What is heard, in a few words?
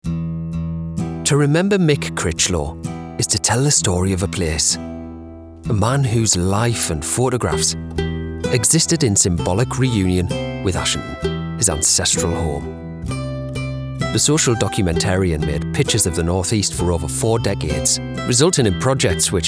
documentary